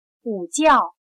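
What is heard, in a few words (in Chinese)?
"午觉" Mandarin Chinese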